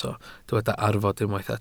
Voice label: "arfod", Welsh

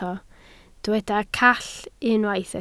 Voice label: "call", Welsh

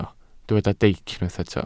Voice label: "deic", welsh

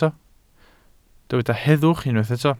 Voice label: "heddwch", Welsh

